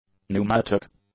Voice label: "pneumatic", english; adjectives

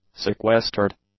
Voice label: "sequestered", English; adjectives

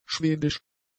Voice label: "schwedisch", German; adjectives

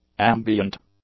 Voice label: "ambient", English; adjectives

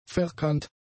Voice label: "verkannt", German; adjectives

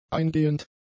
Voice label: "eingehend", German; adjectives

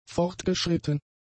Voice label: "fortgeschritten", German; adjectives

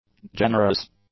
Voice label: "generous", English; adjectives

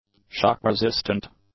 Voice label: "shock-resistant", English; adjectives

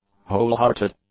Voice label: "wholehearted", english; adjectives

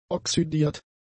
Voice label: "oxydiert", German; adjectives

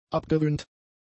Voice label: "abgewöhnt", German; adjectives

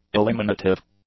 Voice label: "eliminative", English; adjectives

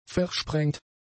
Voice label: "versprengt", German; adjectives

